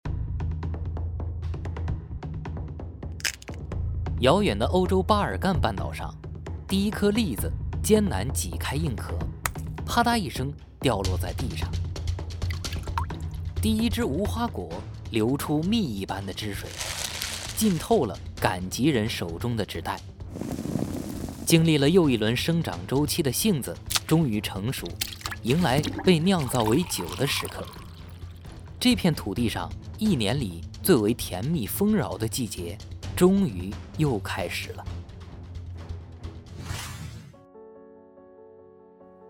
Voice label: 美食节目片头

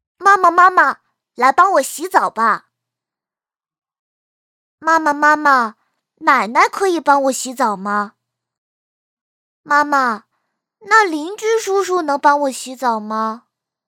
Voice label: 小男孩声音

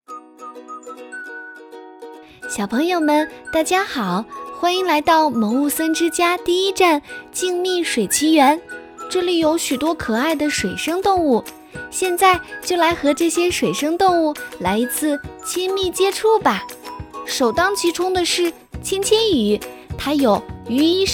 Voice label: 可爱女童

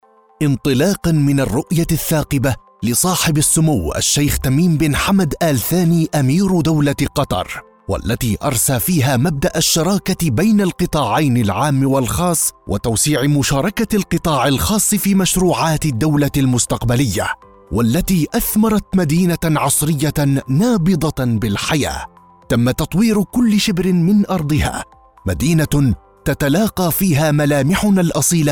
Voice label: 外籍阿拉伯语男磁性浑厚

